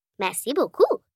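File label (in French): "merci beaucoup", French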